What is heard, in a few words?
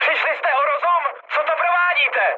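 player killed ally, Czech, mood high; speech; War Thunder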